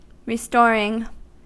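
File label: "restoring", US English; pronunciations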